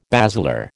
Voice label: "basilar", American

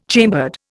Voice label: "chambered", Indian

